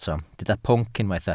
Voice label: "pwnc", Welsh

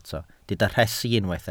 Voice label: "rhesi", Welsh

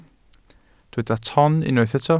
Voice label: "ton", Welsh

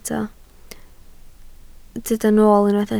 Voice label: "nool" Welsh

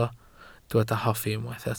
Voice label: "hoffi", Welsh

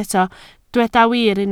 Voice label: "wir", Welsh

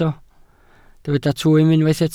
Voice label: "twym", Welsh

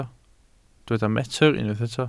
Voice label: "metr", Welsh

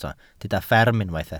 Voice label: "fferm" Welsh

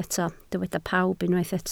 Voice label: "pawb", Welsh

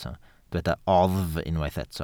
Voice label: "oddf" Welsh

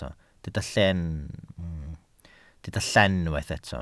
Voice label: "llen", Welsh

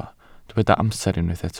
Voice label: "amser", Welsh

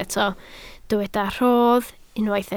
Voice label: "rhodd", Welsh

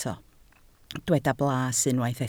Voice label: "blas", Welsh

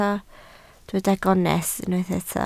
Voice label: "gonest" Welsh

